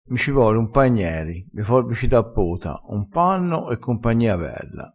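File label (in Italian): "e compagnia", dialettale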